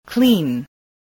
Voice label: "clean", spelling